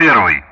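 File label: Speech Russian; Common Player Indexes 1st; DCS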